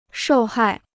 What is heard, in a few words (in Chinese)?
"受害", Chinese